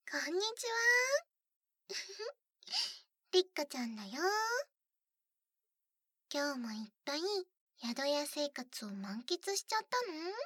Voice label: 耳舐め, ASMR; 娘の耳舐め手コキと、お掃除フェラ; 効果音なし

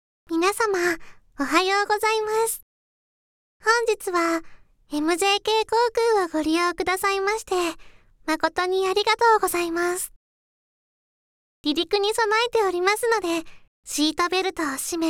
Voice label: アナウンス; ロリ母性抱擁系CA

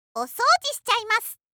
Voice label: システムボイス

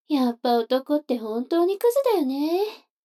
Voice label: 女の子 台詞, ASMR

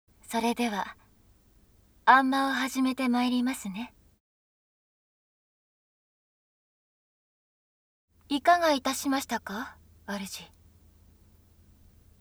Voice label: 声のみ ノイズ除去なし

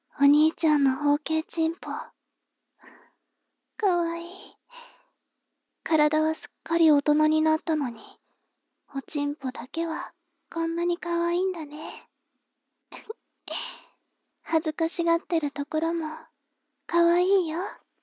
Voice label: 妹 台詞

